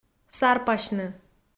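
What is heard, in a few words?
"сарпасьны", Komi, female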